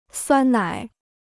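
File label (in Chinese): "酸奶" Chinese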